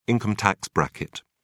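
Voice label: "income tax bracket", British English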